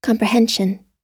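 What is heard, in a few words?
"comprehension", American English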